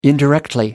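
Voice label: "indirectly", British English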